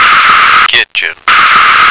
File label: "kitchen", American